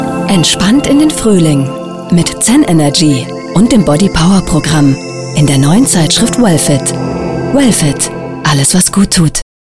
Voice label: Werbung entspannt

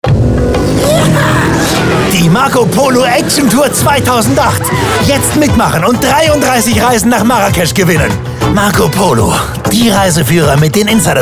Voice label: werbung action; marco polo

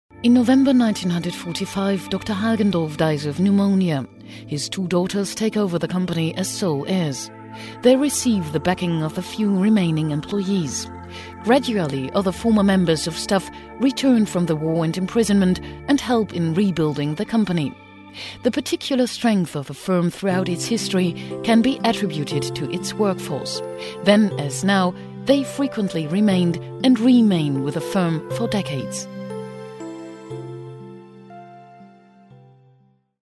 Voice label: Englisch Imagefilm; lysoform

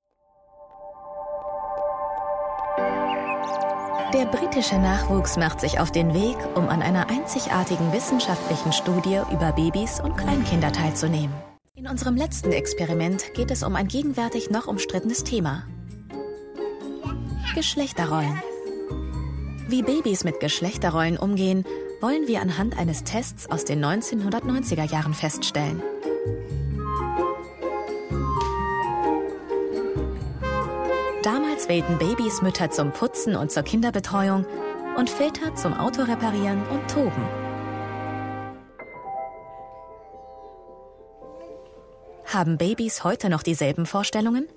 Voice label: doku kommentar; servus tv 2019

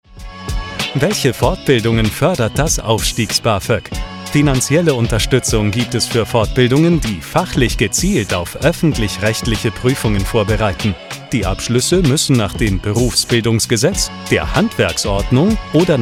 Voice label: erklaer werblich

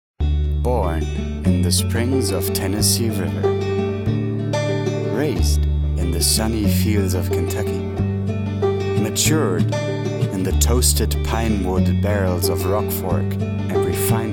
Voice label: eng Werbung; bourbon

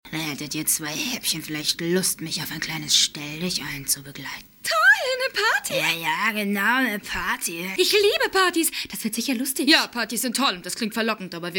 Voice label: trickstimmen

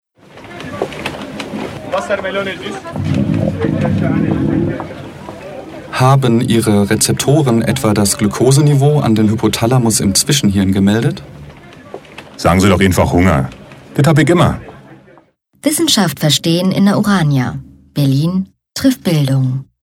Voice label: berlinerisch radiospot; Muttersprache Deutsch

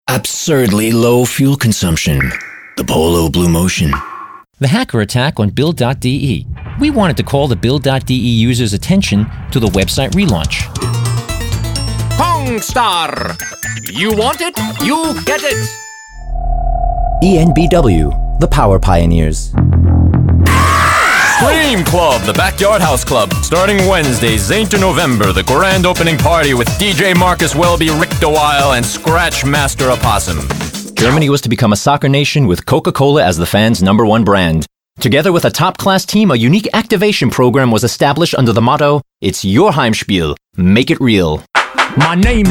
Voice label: werbung

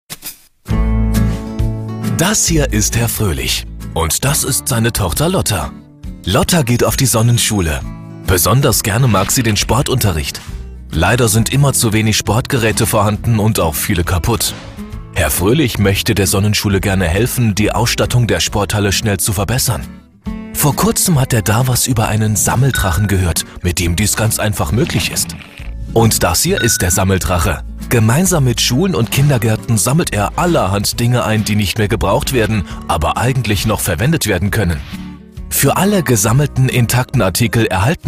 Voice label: erklaervideo

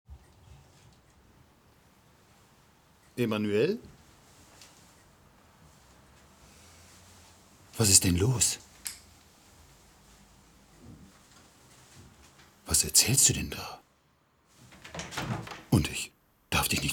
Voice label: syncron emotional

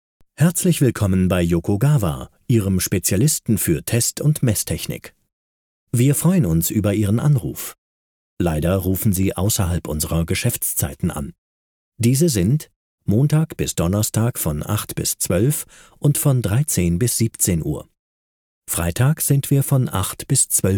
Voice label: telefonie

